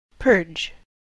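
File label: "purge", US English; pronunciations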